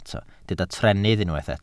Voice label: "trennydd", Welsh